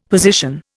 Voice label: "position", Indian; Dictionary

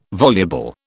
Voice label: "voluble", British

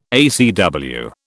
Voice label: "ACW", British

